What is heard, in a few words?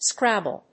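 "Scrabble", English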